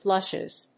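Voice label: "Blushes", English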